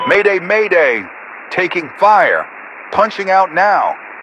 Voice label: Radio pilot Ejecting